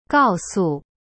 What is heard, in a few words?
"告诉 gao su", Chinese; cyberchinese